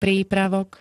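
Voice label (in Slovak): "prípravok", Slovak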